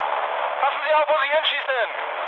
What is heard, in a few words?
player friendly fire, mood med, speech ge